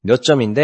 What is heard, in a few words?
neutral